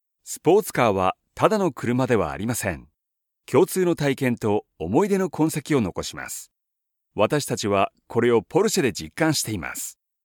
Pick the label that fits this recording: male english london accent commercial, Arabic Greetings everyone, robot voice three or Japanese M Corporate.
Japanese M Corporate